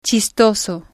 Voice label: "chistoso", spanish